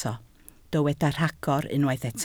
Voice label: "rhagor", Welsh